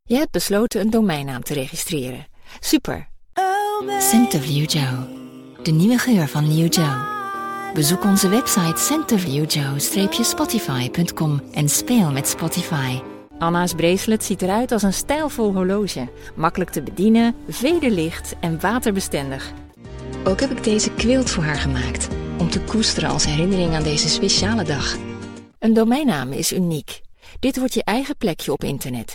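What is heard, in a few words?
female, Dutch, French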